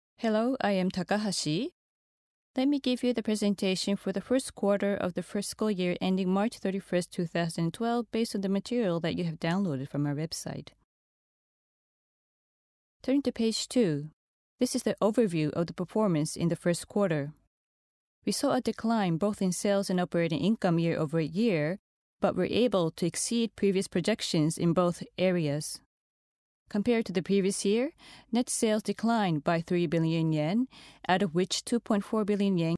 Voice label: english